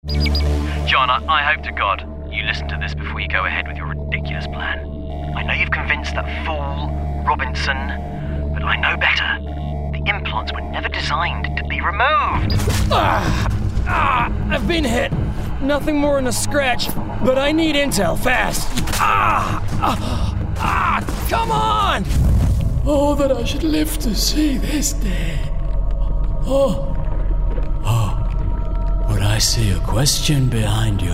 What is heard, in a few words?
Gaming Character; Gaming/Character Showreel